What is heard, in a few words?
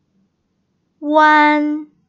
"uan", Pinyin Finals